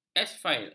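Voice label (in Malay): "asfalt", Malay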